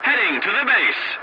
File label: voice message return to base, english; War Thunder